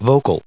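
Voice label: "vocal" English, US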